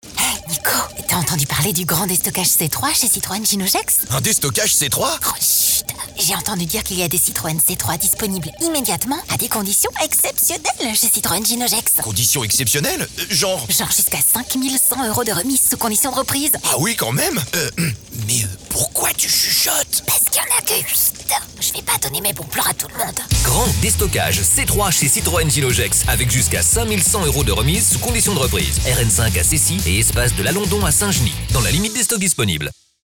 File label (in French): Pub radio; Collegues de bureaux; CITROEN GINOT GEX